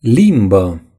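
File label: "tongue", Romanian; gcompris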